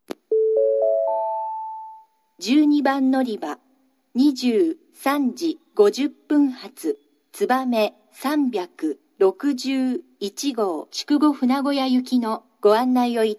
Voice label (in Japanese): 12番のりば案内放送（つばめ361号 筑後船小屋行き）※N700で運転, 久留米（新幹線ホーム）